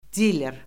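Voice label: "diler", Azerbaijani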